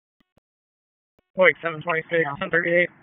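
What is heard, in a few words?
Sheriff's Office Dispatch; WSO DISP 1; Wake; p25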